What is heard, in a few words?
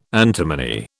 "antimony", British